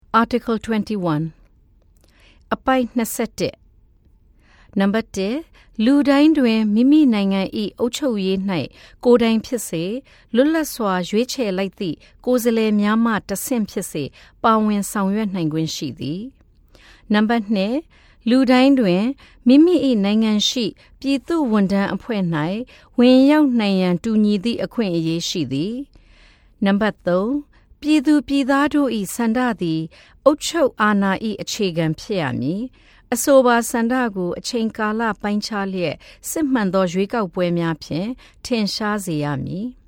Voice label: Burmese